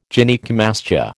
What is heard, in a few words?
"Gynaecomastia", American